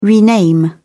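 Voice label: "rename", English, British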